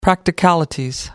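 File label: "practicalities", English, British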